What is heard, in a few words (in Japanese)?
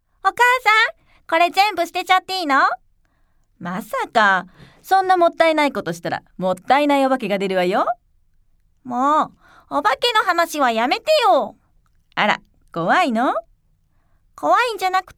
少女と母親